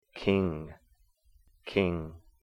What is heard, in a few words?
"kiing", him/herself, Cahto; "k" in "king"